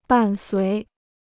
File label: "伴随", Chinese